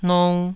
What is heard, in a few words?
"nou2", Taiwanese